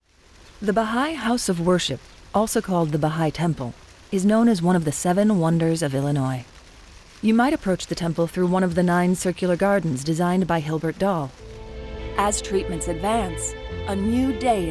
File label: Narrative Instructional